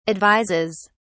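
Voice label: "advises", British English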